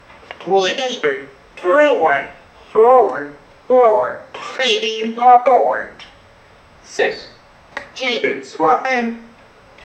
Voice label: pa announcement attention